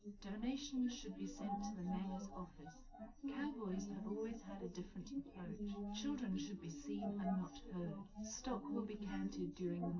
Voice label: female, live rec, 250ms, 1m

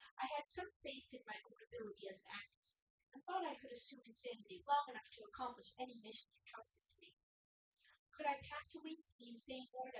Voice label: female4 synthconv; SiSEC08; SiSEC underdetermined

